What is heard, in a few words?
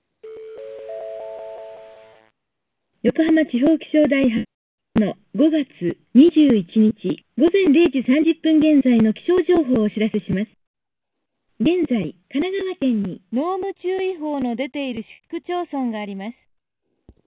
pcmu 8khz hsdpa